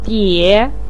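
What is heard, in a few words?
"die" third tone, Mandarin Chinese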